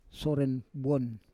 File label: "soren bwon", Roon